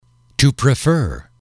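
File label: "to prefer", English, male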